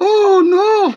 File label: "oh no" german